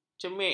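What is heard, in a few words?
"cemik", Malay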